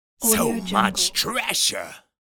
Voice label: pirate says so much treasure